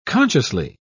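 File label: "consciously", British English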